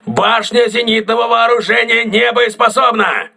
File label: aa turret damaged, crew ships russian